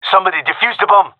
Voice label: cover defuse, gendarmerie male; vo